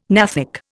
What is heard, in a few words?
"gnathic", Indian